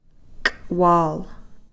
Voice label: "k'wal", new